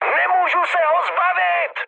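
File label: aircraft damaged, Czech, mood med; speech; War Thunder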